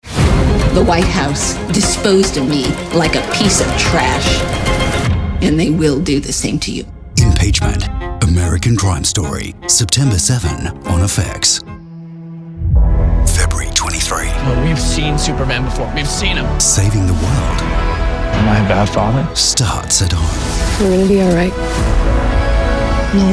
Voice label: promos